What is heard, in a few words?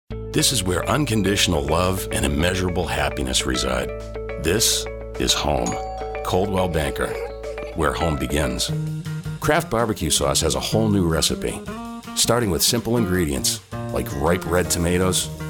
commercial